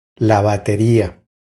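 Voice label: "La bateria", Spanish; DELE B1 vocabulary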